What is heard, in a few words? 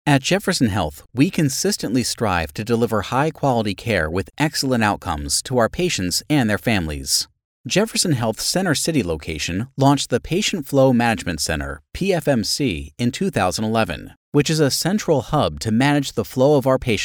Narration